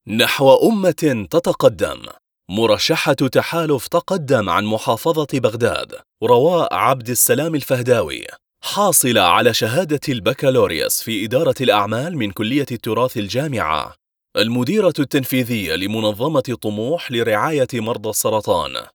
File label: arabic political ads